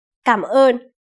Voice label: "cam on", fast